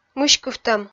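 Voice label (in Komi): "мыськывтӧм", Komi, female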